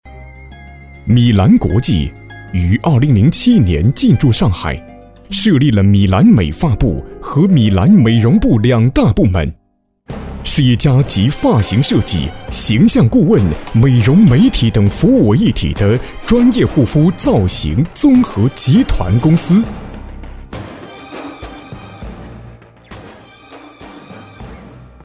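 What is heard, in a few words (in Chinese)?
男企业介绍大气激昂; 米兰国际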